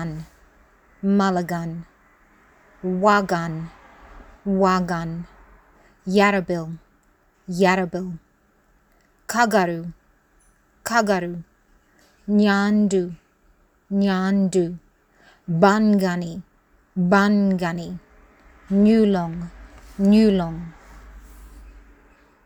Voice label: Yugambeh pronunciation guide spoken